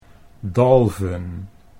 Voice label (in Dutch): "dalven", Dutch